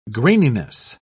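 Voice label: "graininess", English, British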